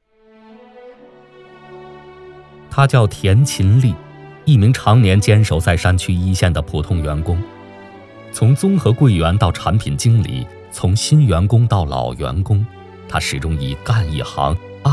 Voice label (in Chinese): 男 人物介绍